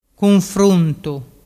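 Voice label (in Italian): "cunfruntu", dialect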